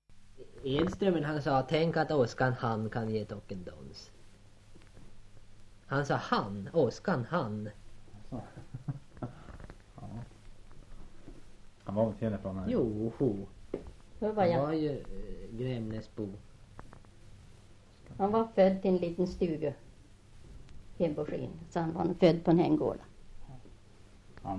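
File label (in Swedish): Revsund Jämtland, dialekter; Folkminnen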